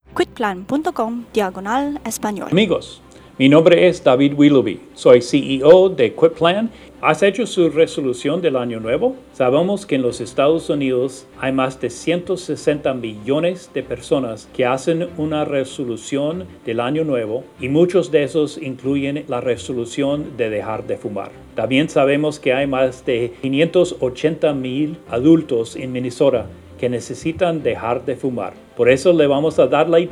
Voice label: Spanish; Propósito de Año Nuevo